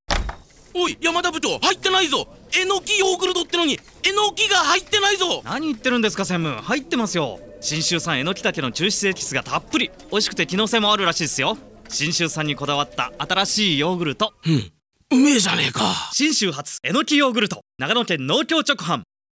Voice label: radio; enoki